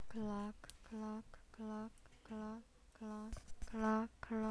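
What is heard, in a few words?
"clock", spoken word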